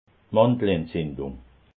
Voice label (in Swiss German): "mandelentzindung", alsace